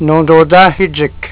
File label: nododahijik, ABENAKI CONVERSATION